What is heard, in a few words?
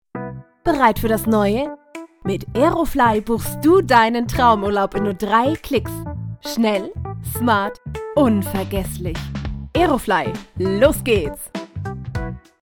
commercial; aerofly